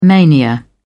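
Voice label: "mania", British English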